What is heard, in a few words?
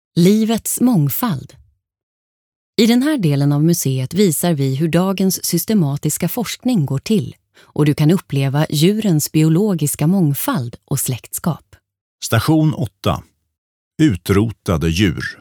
audioguide swe; pungvargen blabocken